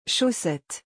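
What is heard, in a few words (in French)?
"chaussettes", French; phonetique; la graphie ch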